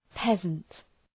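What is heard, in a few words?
"peasant", English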